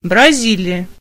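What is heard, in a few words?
brazil, Spanish; gcompris-data; geography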